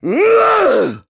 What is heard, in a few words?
Angry; Ghirahim; Skyward Sword